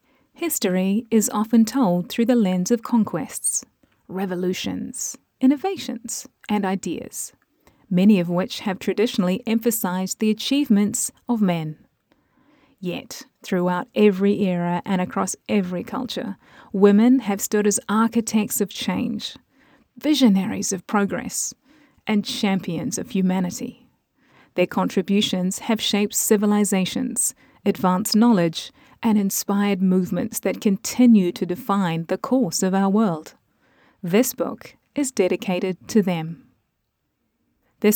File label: Audiobook Non Fiction